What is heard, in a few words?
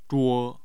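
"zhuo1", Mandarin Chinese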